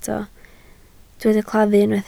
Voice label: "claddu" Welsh

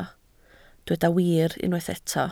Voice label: "wir", Welsh

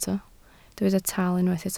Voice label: "tal", Welsh

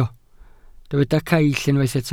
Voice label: "caill", Welsh

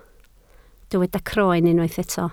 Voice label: "croen", Welsh

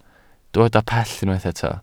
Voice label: "pell", Welsh

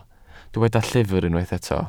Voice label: "llyfr" Welsh

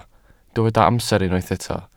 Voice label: "amser", Welsh

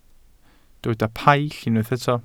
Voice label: "paill", Welsh

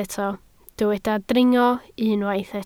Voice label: "dringo", Welsh

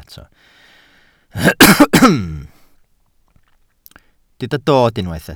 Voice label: "dod", Welsh

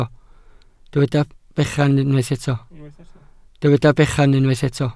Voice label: "bechan", Welsh

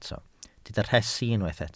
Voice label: "rhesi", Welsh

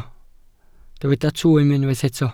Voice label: "twym", Welsh

